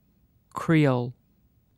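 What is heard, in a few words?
"creole", British English; pronunciations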